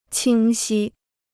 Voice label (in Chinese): "清晰", Chinese